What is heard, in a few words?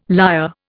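"lyre", Indian English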